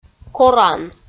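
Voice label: "коран", Komi, female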